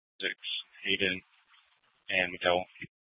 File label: Scottsdale PD Sth Dispatch, scanner